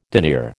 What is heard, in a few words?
"denier", American